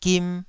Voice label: "gim2", taiwanese